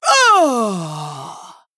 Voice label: Female Troll Death

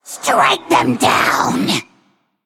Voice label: Female Undead; Valeera

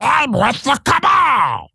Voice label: Male Goren Play

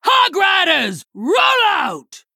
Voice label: Female Quilboar

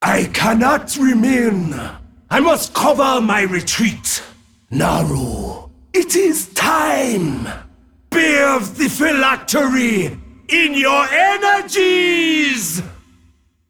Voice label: Male Dragon Victory Pre Explosion; Kazakusan

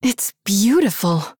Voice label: Female Human Wow

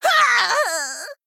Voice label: Male Goblin Death

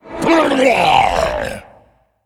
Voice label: Male Faceless Death

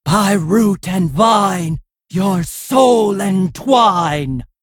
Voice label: Female Furbolg

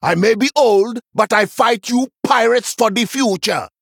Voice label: Male Troll Idle

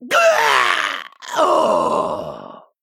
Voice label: Male Goblin Death

